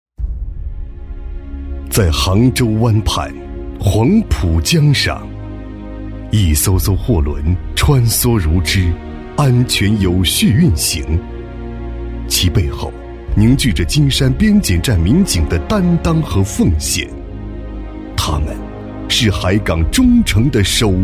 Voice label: 男 党政宣传片 浑厚有力; 海港忠诚

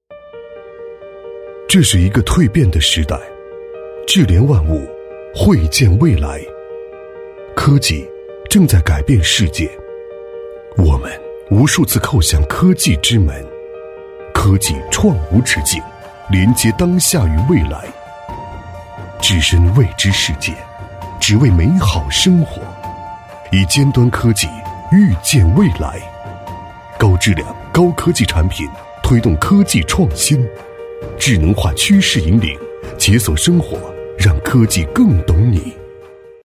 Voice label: 男 宣传配音 科技品质; 京东; 科技感

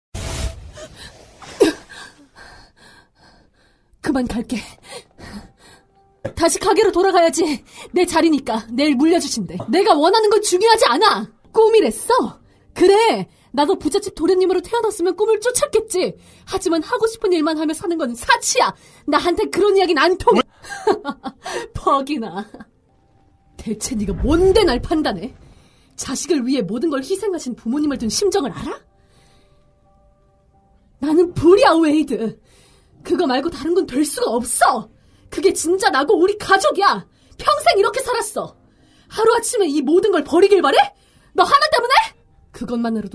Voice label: 韩语女声

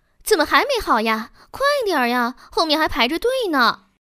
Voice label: 女 游戏CG 棋牌语音提示; 轻松活泼; 妲己

